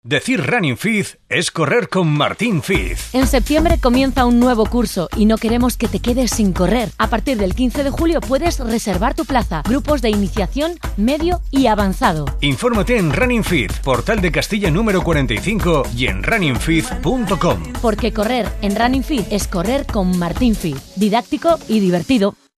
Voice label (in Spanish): Cuña Publi Cadena Ser; correr martin fiz